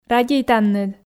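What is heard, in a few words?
"радейтанныд" Komi, female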